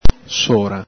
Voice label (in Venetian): "sora", Veneto